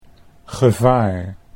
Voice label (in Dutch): "gevaar", Dutch